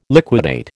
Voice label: "liquidate", American